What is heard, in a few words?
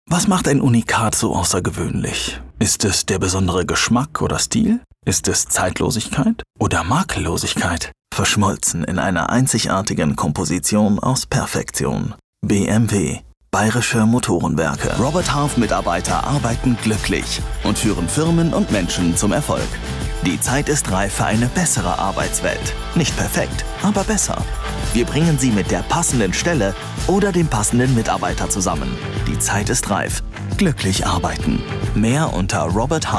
corporate narration german